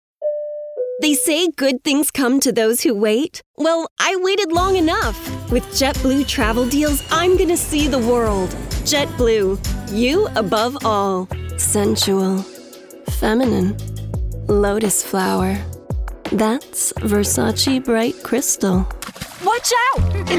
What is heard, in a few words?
Commercial; voice over